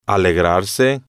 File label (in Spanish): "alegrarse", Spanish